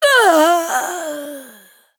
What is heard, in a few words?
Female Troll Death